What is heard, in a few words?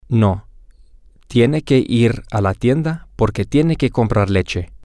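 Spanish, male; no she has to go to the store because sh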